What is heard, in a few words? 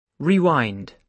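"rewind", British English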